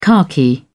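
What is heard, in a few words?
"khaki", British English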